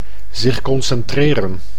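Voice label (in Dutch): "zich concentreren", Dutch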